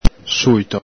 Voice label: "suito", Veneto